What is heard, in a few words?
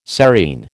"serein", American